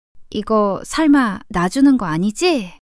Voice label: shy; monologue

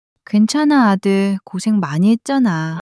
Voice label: sadness, monologue

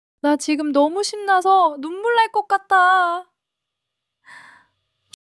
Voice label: excitement; monologue

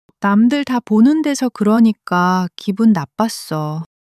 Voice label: sadness, monologue

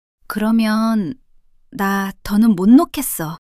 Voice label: shy; monologue

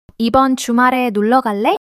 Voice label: joy; monologue

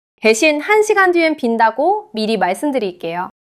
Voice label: neutral, monologue

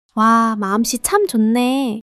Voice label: joy

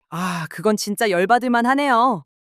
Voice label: anger; monologue

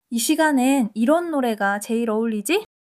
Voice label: joy; monologue

